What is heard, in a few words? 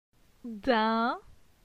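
"Din", French; phonetique; la syllabe in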